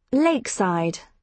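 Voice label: "lakeside", British English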